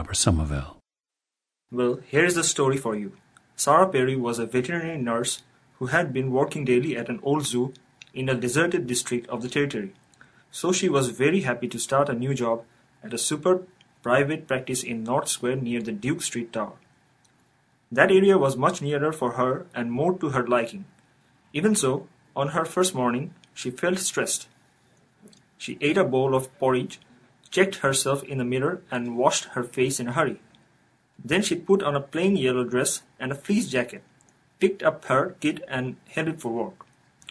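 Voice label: bangladesh, International Dialects of English Archive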